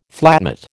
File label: "flatmate", American